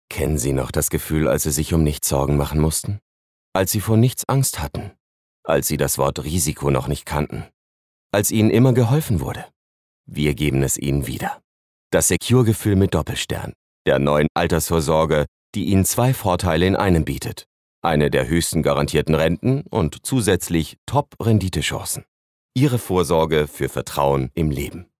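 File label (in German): werbung, deutsch männer; sprecher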